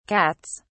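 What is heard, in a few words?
"cats", British English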